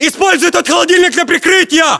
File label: order cover fridge, ru; localized english